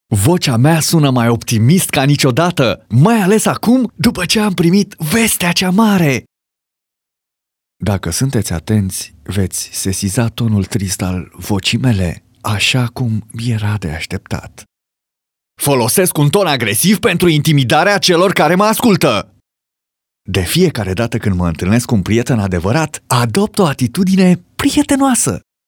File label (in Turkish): Romence Erkek, romence seslendirme; erkek sesler